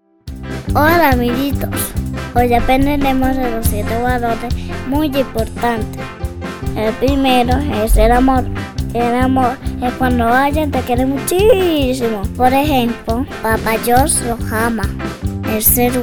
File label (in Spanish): Narracion Intro